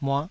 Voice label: "muah5", Taiwanese